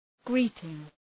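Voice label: "greeting", English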